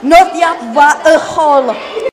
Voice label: one language klingon

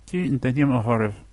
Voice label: name, gaelic; Scottish Gaelic

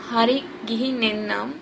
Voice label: goodbye, sinhala

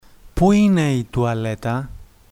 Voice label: "toilet", Greek

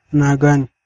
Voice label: understand hausa

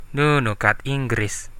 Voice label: how to say, Central Dusun; Okuro-kuro ko no?

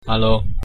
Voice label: hello, vietnamese; hello on the telephone

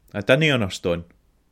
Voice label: no understand scots

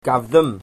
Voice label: excuse me, Cornish

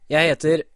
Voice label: my name is, norwegian; Jeg heter; (BM)

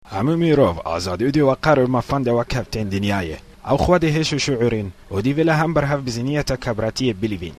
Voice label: Kurdish

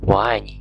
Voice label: i love you, mandarin

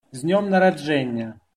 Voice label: birthday, belarusian

